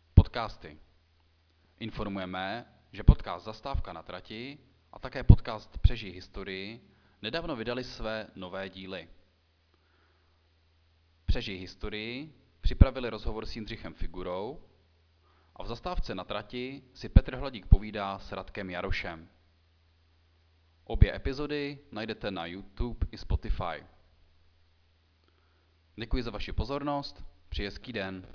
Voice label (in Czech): pravidelne hlaseni rozhlasu